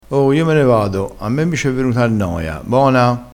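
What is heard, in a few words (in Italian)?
"bona", vocabolario dialettale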